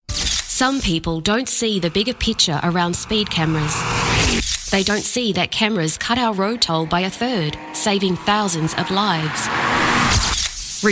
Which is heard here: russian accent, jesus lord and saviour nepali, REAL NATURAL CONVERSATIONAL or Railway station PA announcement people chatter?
REAL NATURAL CONVERSATIONAL